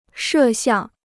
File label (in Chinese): "摄像", Chinese